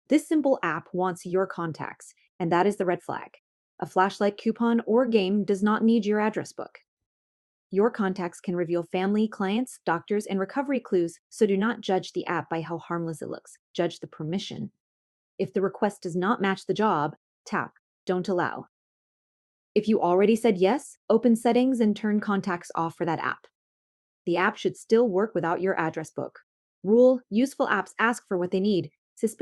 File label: voice test continuity